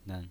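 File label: "nen", pinyin voice, Mandarin Chinese, neutral tone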